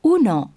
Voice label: Spanish count 1; samsung YP-U5; Audio User Interface